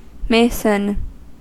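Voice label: "mason", US English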